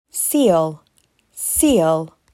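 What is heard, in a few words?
"Seal", English word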